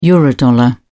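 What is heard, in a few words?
"eurodollar", British English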